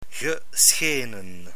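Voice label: "geschenen", Dutch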